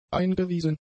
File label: "eingewiesen", German; adjectives